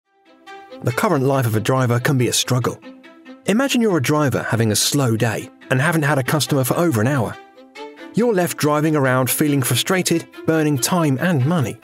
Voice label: Explainer